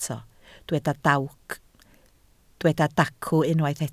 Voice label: "dacw", Welsh

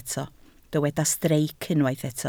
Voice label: "streic", Welsh

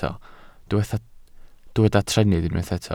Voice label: "trennydd", Welsh

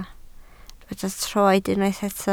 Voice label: "troed", Welsh

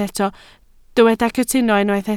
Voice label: "cytuno", Welsh

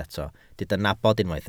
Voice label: "nabod", Welsh

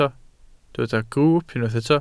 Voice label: "grwwp", Welsh

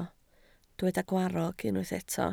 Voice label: "gwarrog", Welsh

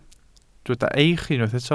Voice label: "eich", Welsh

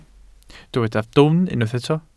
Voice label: "dwfn", Welsh

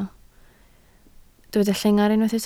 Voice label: "llengar", Welsh

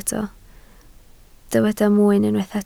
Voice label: "mwyn", Welsh

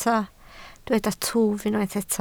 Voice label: "twf", Welsh

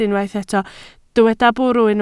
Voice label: "bwrw", Welsh

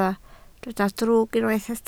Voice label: "drwg", Welsh

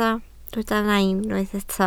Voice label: "nain", Welsh

